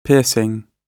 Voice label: "piercing", GB